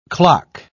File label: "clock", pronounce